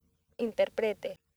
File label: "interprete", accent; laboratori fonetica; practiques accent